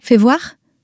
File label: "fais voir", French